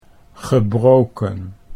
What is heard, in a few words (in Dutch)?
"gebroken", Dutch